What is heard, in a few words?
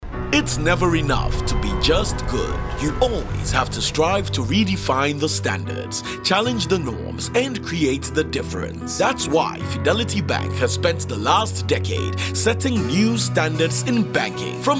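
English African male Banking; Prestige Banking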